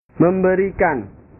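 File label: "memberikan", Indonesian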